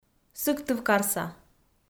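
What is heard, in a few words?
"сыктывкарса", Komi, female; audio dict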